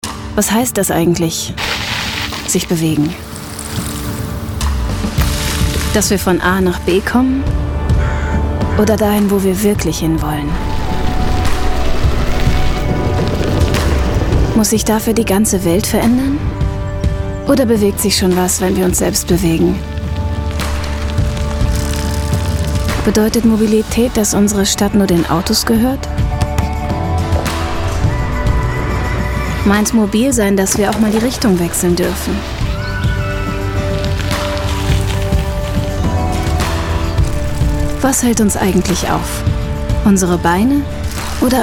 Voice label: female german corporate comercial; ideasonora

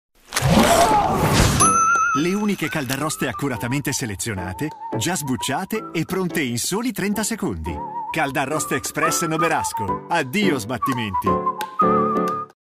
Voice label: male Italian tv comercial; ideasonora

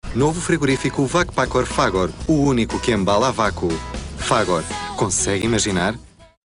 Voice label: male portuguese comercial; ideasonora

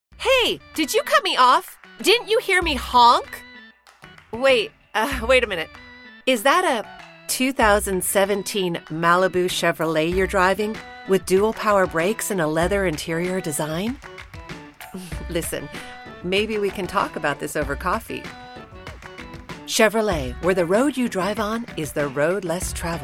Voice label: female american english car comercial

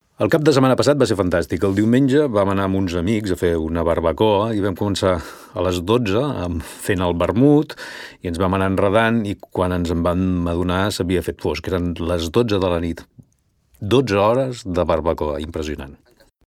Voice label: male catalan story comercial; ideasonora

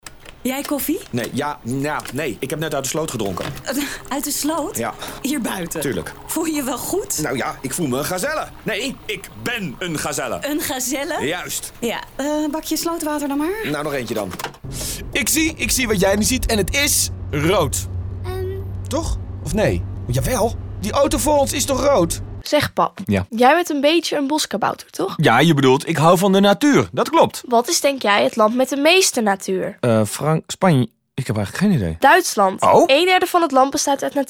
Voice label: male german comercial

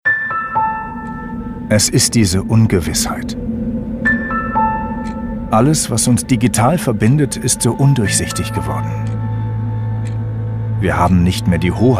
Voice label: male german commercial; ideasonora

